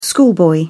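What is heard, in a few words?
"schoolboy", British English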